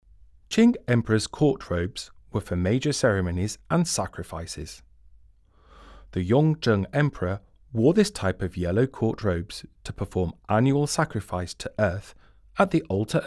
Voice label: ENG, audio guide; Court robe with dragons and clouds